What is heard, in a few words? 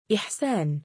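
"2i7saan" male, Arabic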